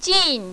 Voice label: "jin4", mandarin, female